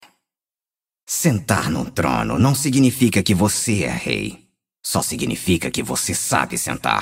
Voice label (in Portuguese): vozes dublado pt br